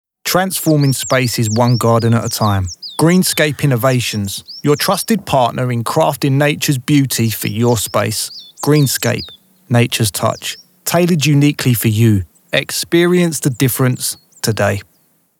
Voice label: GARDEN RADIO ADVERT